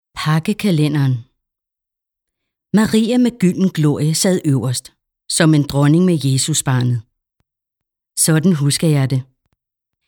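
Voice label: Danish, Audiobook, female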